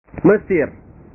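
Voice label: "mesir", Indonesian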